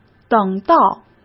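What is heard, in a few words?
"等到", Mandarin Chinese; hsk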